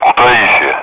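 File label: Speech, Russian, air traffic control; Callsign; Kutaisi; DCS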